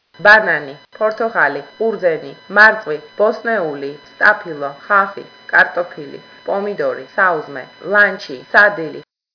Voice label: georgian; food2